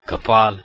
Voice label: "kapaal", Nepali